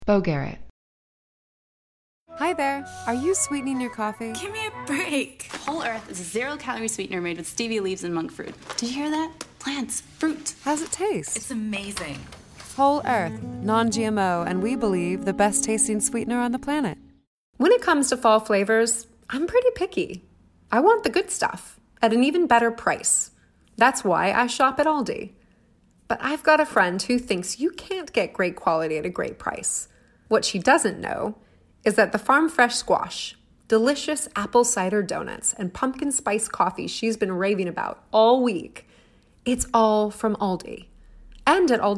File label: commercial; Innovative LA